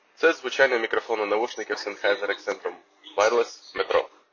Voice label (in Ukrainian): sennheiser accentum wireless v metropoliteni; в метрополiтенi